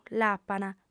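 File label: "l'apant", female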